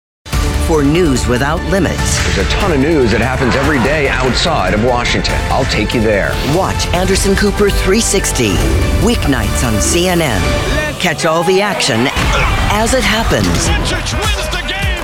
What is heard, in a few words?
tv affiliate women